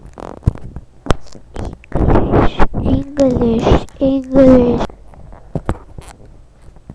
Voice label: English